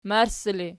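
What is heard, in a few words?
"marssi" ergative, Archi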